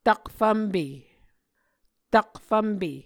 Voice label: "takfvmpe", Muscogee